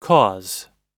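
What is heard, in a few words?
"cause", US English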